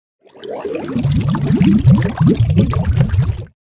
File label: confused